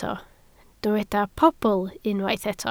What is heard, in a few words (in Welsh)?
"pobl", Welsh